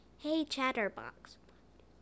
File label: hey chatterbox, en-us